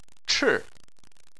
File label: "chi4", chinese